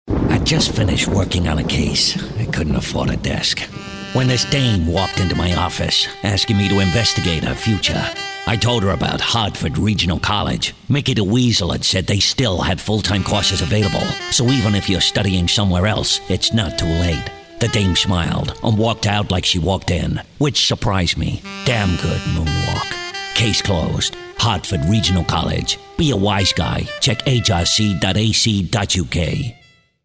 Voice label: private detective style

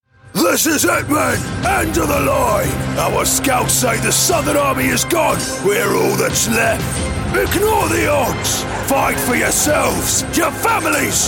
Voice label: character

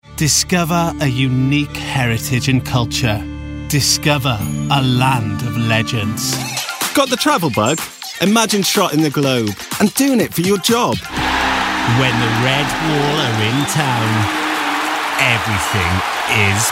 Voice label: commercial

